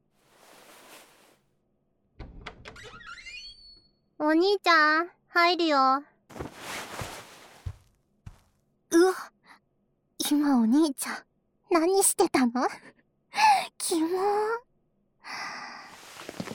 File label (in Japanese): メスガキ (囁き妹); メスガキるび様